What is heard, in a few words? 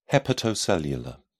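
"hepatocellular", British English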